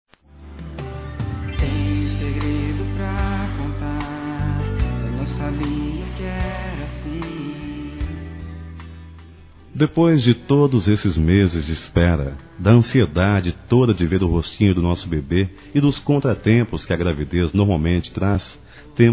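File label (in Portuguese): MATERNIDADE ROMANTICO, Telemensagens, Românticas masculino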